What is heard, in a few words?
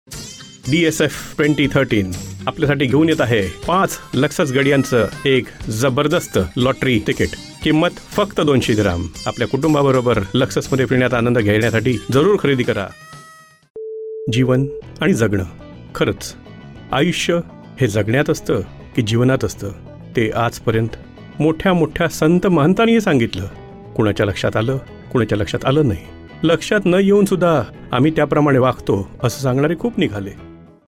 MARATHI